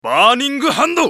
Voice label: ウォーロック男 バーニングハンズ

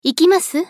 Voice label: ウィザード女 移動

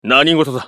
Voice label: ウィザード男･選択

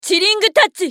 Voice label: ウォーロック女; チリングタッチ

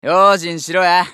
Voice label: スカウト男 インストールトラップ

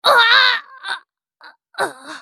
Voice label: スカウト女 ダウン

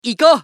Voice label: ファイター男 移動

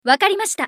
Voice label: ソルジャー女 選択; リボルドウェソルジャー

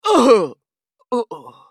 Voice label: スカウト男 ダウン

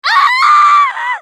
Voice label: ウィザード女 死亡

